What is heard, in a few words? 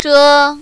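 "zhe" neutral tone, mandarin, female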